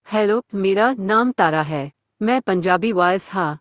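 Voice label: Punjabi, India; iOS Sounds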